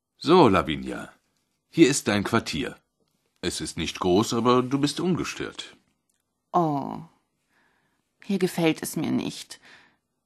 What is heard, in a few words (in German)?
hoerspiel, frauen; Sprecherinnen, Weibliche